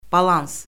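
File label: "balans", Azerbaijani; Orfoqrafiya Lüğəti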